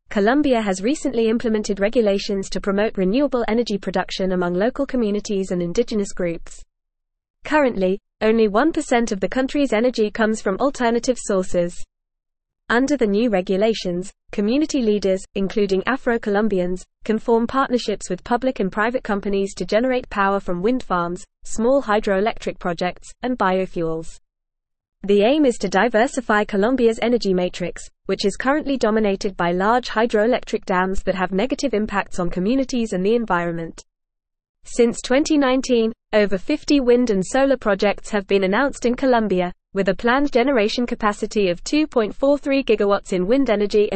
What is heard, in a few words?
English Newsroom Advanced FAST Reading; Colombia Promotes Renewable Energy to Empower Communities